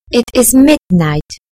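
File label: "midnight", British English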